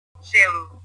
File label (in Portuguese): "gelo", portugues